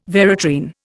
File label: "veratrine", Indian